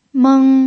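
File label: "meng", pinyin, first tone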